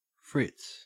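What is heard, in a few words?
"fritz", Australian English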